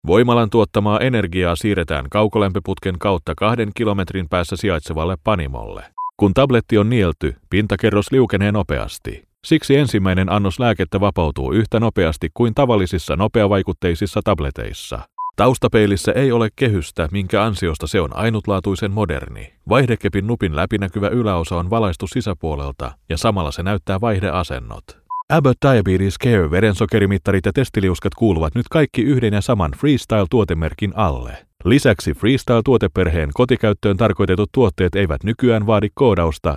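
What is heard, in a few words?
finnish male; lektoring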